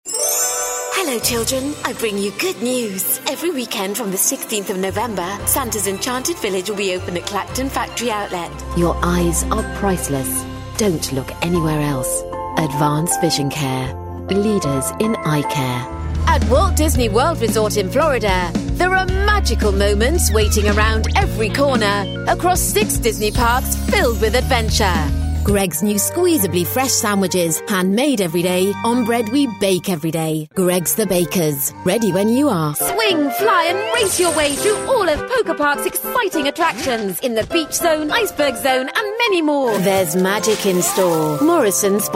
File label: london 60 second montage